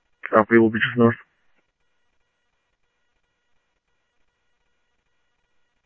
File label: Mesa Simulcast, MESA PD, scanner audio